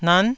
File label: "nan9", taiwanese